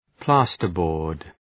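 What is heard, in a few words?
"plasterboard", English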